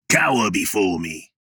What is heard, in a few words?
vocal cower before me male 30s fantasy voice; rogue custom line